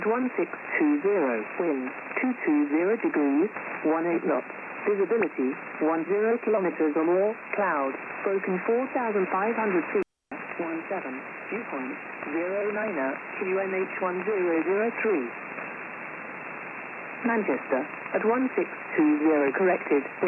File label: RAF Volmet USB; 11253khz